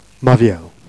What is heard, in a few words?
"mavielle", jerriais